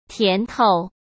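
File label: "甜头", Mandarin Chinese; chinese-flashcards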